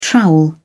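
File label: "trowel", British English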